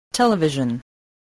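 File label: "television", English word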